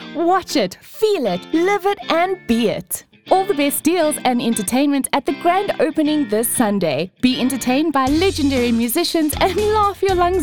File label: hard sell